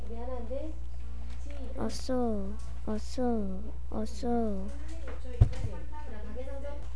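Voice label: "also", spoken word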